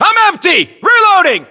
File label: inform reloading generic, US